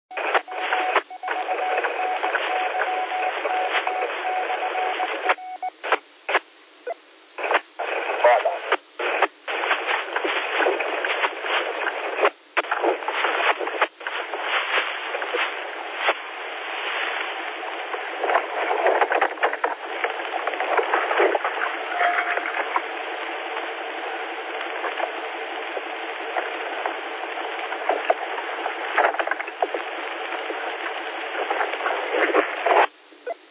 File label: qso rec simplex logic